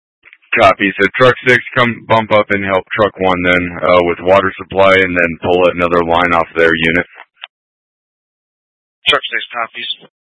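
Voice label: Incident Tac 1, Scanner audio recording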